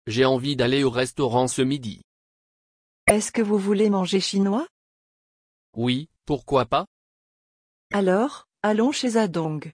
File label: dialog